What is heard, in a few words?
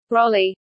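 "brolly", British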